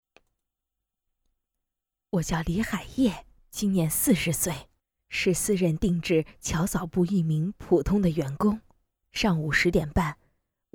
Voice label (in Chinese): 女, 模仿, 中年女声